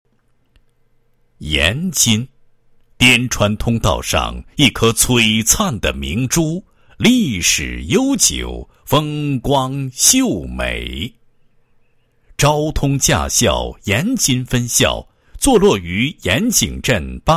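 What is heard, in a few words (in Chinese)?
男-学校-驾校开展路训强力拉练活动, 配音案例; 昭通驾校盐津分校开展路训强力拉练活动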